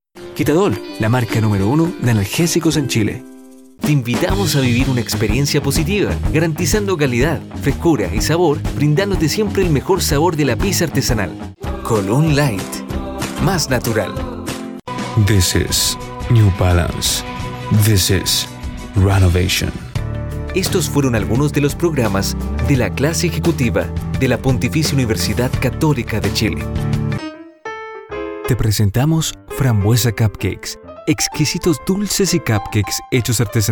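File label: Spanish Chilean commercial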